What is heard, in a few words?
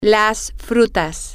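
the fruits, Spanish, female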